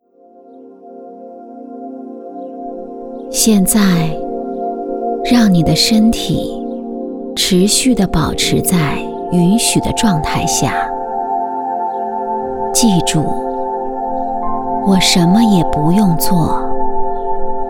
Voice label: 冥想, 女

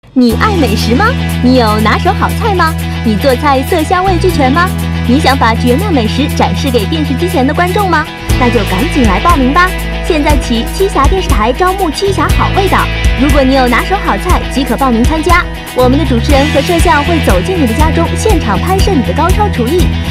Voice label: 美食 宣传片 配音

